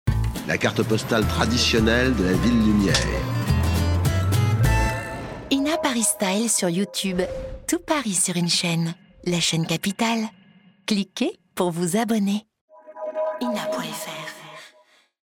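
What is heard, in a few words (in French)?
la parisienne, comédienne voix off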